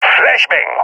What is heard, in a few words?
balkan throwing flashbang; vo; balkan epic